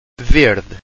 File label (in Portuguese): "verde", Portuguese